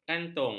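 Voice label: "kantung", Malay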